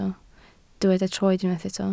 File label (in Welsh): "troed", Welsh